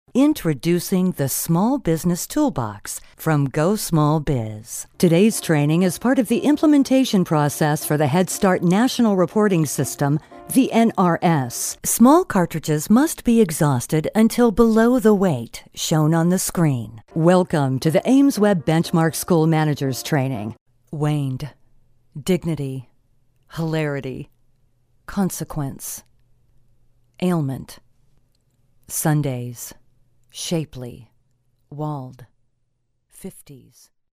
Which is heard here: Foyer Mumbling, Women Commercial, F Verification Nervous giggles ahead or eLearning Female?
eLearning Female